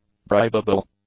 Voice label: "bribable", English; adjectives

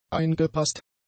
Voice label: "eingepasst", German; adjectives

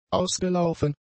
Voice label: "ausgelaufen", German; adjectives

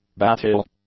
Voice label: "bathyal", English; adjectives

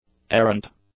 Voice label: "errant", English; adjectives

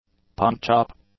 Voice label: "punchup", English; nouns

